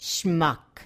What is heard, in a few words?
"schmuck", Yiddish